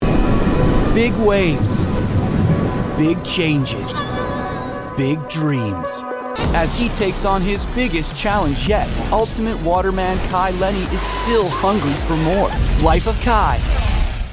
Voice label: Cool, confident, upbeat and full of energy. American actor; appeared in Aquaman 2